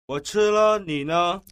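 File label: "wo chi le, ni ne", Mandarin Chinese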